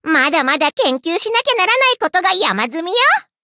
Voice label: levelup ja; Cookie Run